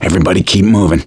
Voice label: emphatic go quiet, survivor voice; gambler